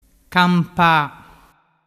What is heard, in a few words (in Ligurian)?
"campa", dialettu